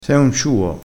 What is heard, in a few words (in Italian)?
"ciuo", crespinese